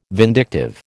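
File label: "vindictive", American English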